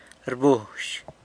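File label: "rbuzh", San Lucas Quiavini Zapotec; calls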